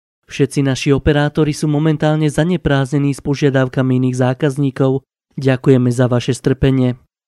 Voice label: Slovakian Male